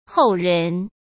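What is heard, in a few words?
"后人", Mandarin Chinese; hsk; chinese-flashcards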